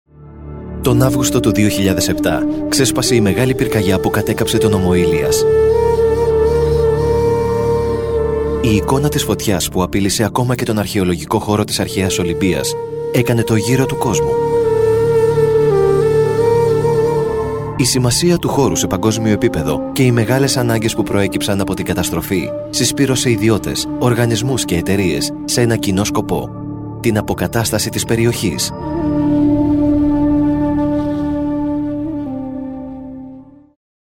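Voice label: EL Documentary, Greek; GoLocalise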